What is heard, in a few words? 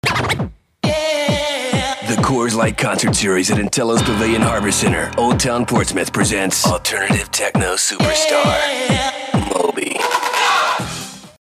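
gen x, voice-over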